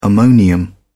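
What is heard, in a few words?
"ammonium", English, British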